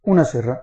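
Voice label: saw description Catalan; tuxpaint-stamps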